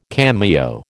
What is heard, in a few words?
"cameo", American